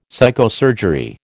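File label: "psychosurgery", American English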